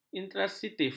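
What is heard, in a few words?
"intransitif", Malay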